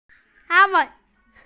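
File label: "an1wei4", Mandarin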